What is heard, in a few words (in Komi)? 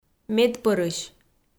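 "медпӧрысь", Komi, female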